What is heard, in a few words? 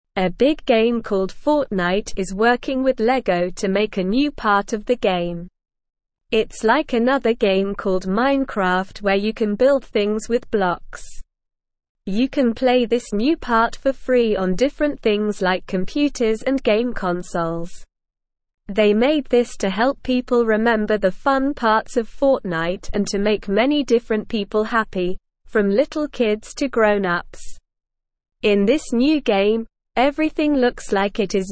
English Newsroom Beginner SLOW Reading; Fortnite and Lego Make Fun Game Together